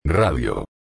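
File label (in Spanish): "radio", Spanish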